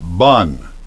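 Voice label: "bun", English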